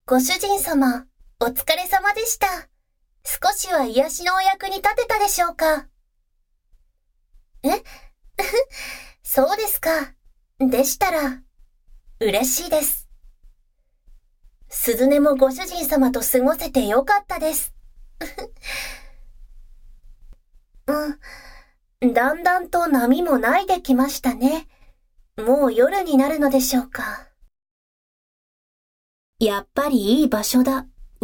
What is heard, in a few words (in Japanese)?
癒しメイドさんがお見送り; ボイスのみ